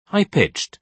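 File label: "high-pitched", British English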